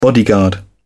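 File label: "bodyguard", British English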